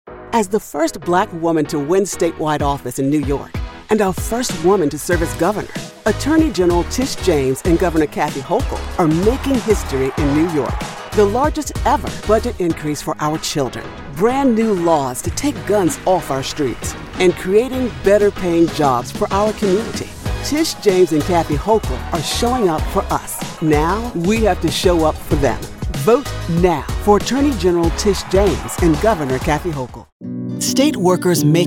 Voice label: Political Spots